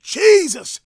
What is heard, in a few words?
preachin, gospel preacher